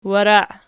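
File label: "warai" nominative, Archi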